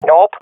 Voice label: negative, swat fem; vo; agents; Source Model Packs; Counter Strike Model Pack; CS2